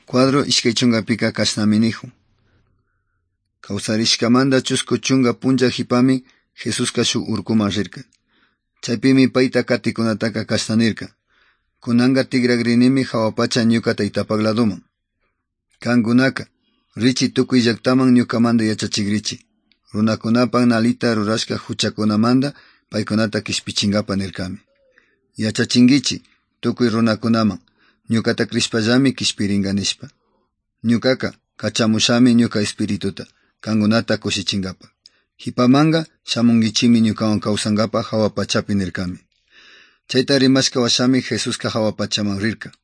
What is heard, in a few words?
good news quichua otovalo